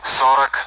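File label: Speech Sound Russian Common AWACS Numbers; DCS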